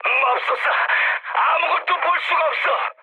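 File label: Korean searchlight mood med, speech; War Thunder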